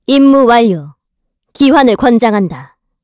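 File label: Vox Victory, Korean; Hilda; kingsraid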